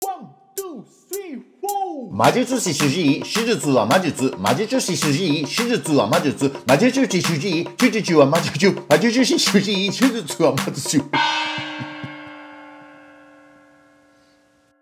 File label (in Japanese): 早口言葉リズム（中級編）